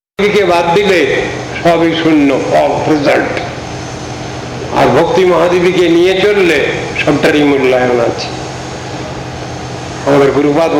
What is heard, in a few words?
Bengali about Bhakti